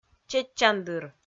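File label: "чеччандыр", Komi, female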